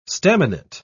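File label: "staminate", British English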